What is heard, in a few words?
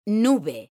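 "nube", Spanish, female; mekanta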